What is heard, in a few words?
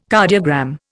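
"cardiogram", Indian English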